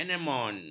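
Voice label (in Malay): "anemon", Malay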